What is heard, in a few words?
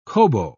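"kobo", English, British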